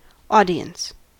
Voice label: "audience", us